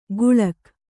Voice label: "ಗುಳಕ್", Kannada

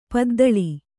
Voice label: "ಪದ್ದಳಿ", Kannada; paddaḷi; noun

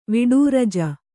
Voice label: "ವಿಡೂರಜ", Kannada

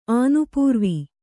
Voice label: "ಆನುಪೂರ್ವಿ", Kannada

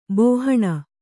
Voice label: "ಬಾಹಣ", kannada

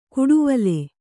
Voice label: "ಗೂಡುವಲೆ", Kannada

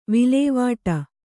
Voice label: "ವಲ್ಲಿವಾಟ", Kannada

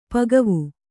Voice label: "ಪಕ್ವ", Kannada

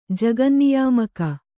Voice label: "ಜಗನ್ನಿಯಾಮಕ", Kannada

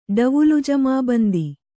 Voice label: "ಡವುಲುಜಮಾಬಂದಿ", Kannada

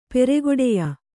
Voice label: "ಪೆರೆಗೊಡೆಯ", Kannada

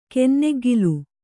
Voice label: "ಕನ್ನೆಗ್ಗಿಲು", Kannada

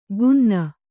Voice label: "ಕುನ್ನ", Kannada

